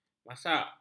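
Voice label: "masak", Malay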